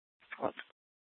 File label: Superstition Dispatch, Mesa PD